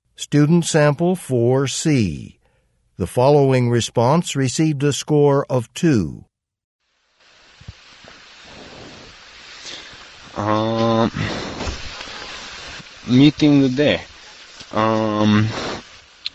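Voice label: japanese conversation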